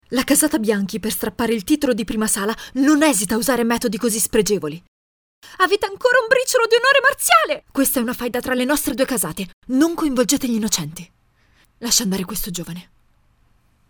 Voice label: locutora italiana italian voiceover